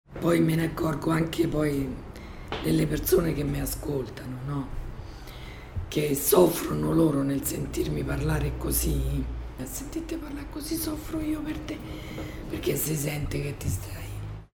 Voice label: emiplegia laringea, spont; voce

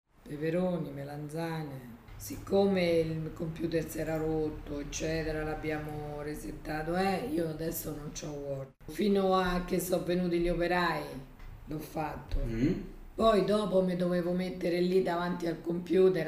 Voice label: emiplegia laringea pre surg; voce

